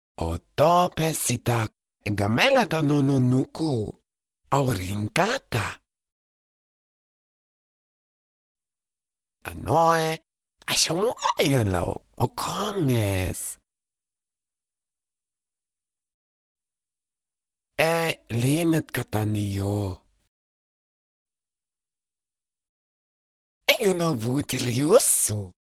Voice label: talk Comfort